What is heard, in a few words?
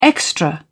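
"extra", British English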